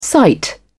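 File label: "cite", British English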